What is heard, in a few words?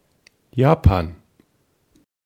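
"Japan", German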